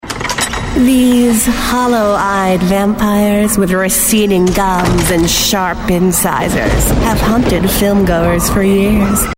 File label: Vampire Trailer